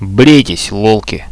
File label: win, Russian; bot, radio